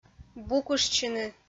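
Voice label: "букыштчыны", Komi, female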